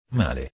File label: "male", Italian